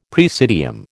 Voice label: "praesidium", American

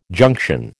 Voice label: "junction", American

